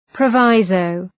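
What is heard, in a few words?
"proviso", English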